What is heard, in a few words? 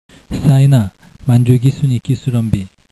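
Manchu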